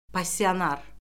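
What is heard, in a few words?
"passionar", Azerbaijani; Orfoqrafiya Lüğəti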